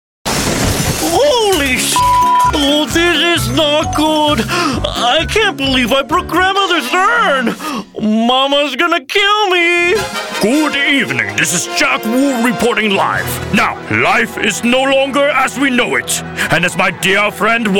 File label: animation demo, voiceover